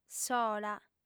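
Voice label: "sola", lingua sarda, female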